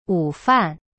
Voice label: "午饭" wu fan, chinese

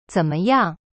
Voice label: "怎么样" zen me yang, chinese

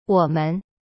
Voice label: "我们", Chinese; wo men

